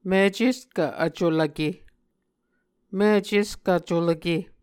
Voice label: "miceskv vculvke", Muscogee

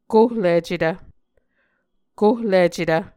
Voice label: "kohlicetv", Muscogee

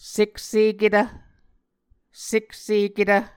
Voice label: "sekseketv", Muscogee

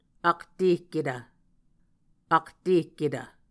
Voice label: "aktehketv", muscogee

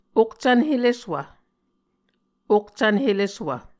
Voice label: "okcvn heleswv", Muscogee

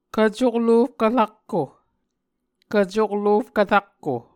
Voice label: "kvcoklofkv rakko", Muscogee

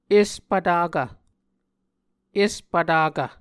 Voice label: "espvtakv", Muscogee

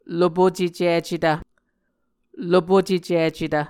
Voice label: "lopucecicetv", Muscogee

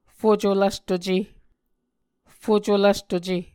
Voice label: "fucolvstuce", muscogee

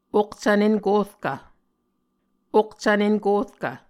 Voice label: "okcvn enkorkv", Muscogee

